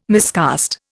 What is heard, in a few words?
"miscast", Indian